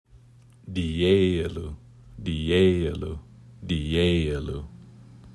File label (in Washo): Diyeyelu, Washoe Language; (grinding rock creek, also called Burke Creek, Rabe Meadow area)